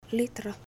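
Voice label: Finnish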